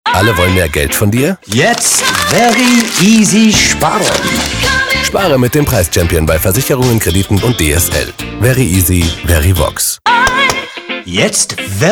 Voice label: Commercial TV; VERIVOX

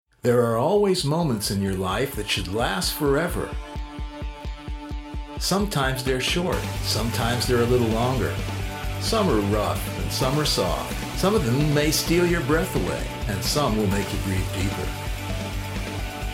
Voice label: TV spot; Canon

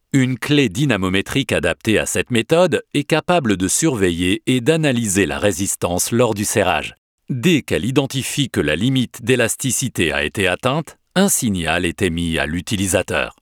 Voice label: e Learning

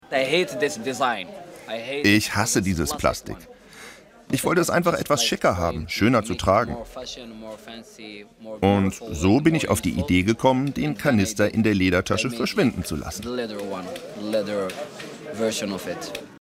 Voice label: Over voice; Taschendesigner

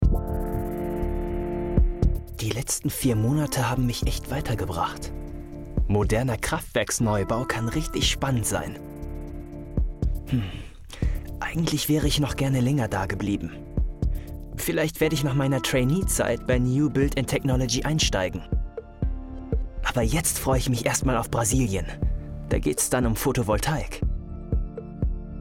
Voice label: commercial off; e.on graduate program egp

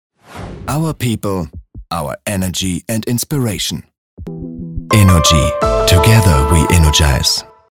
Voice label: advertisement internet english; innogy

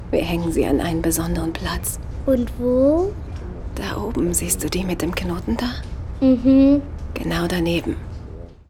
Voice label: intimate loving, German; Synchron - Realfilm - intim, liebevoll; Lip-Sync (Synchron); Narrative

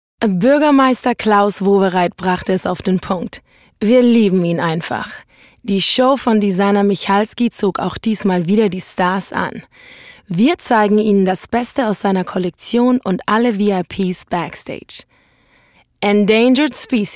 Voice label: News vo; LOFT VOICES

